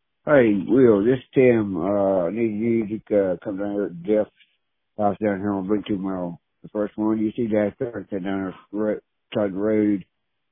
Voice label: Voicemail